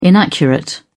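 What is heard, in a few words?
"inaccurate", British English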